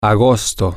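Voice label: august, Spanish, male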